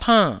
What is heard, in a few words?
"pann4", taiwanese